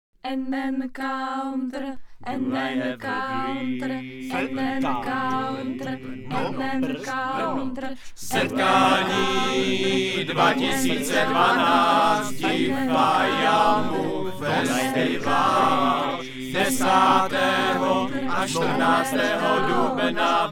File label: radiospot